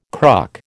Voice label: "cruck", American